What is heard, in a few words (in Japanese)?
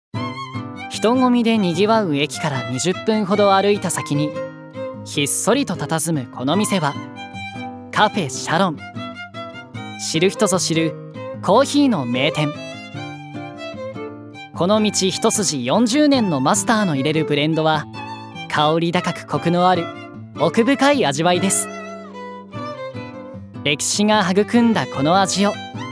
ナレーション